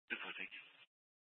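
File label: police dispatch, Scottsdale PD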